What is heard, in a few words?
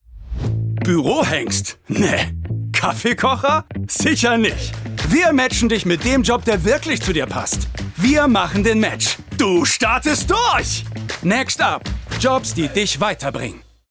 Commercial JOB